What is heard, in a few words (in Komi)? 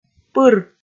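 "пыр", Komi, female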